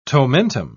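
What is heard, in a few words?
"tomentum", British English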